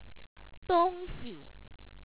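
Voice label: "do1ngxi", Chinese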